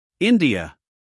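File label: "India", US English, male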